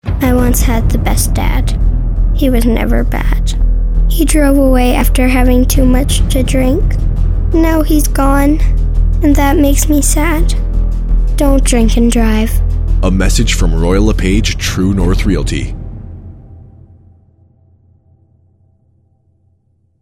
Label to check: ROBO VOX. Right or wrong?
Wrong